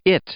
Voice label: "it", English; i-ɪ; pronoun